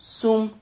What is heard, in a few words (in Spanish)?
"sum", silabas